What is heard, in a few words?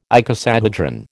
"icosahedron", American